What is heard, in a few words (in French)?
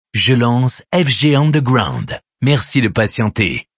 lancement radio; fgunderground